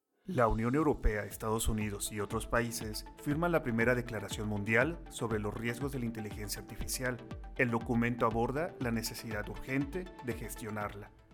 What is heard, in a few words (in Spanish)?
voz imformativa, locutor